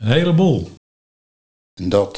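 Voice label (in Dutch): "Heleboel", Dutch, dialect Nieuw lekkerlands; dot, boel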